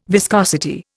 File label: "viscosity", Indian